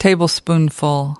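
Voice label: "tablespoonful", US English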